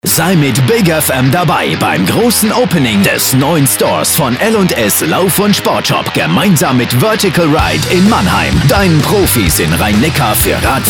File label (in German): Trailer; Grand Opening; bigFM; long Version